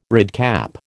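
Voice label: "redcap", American English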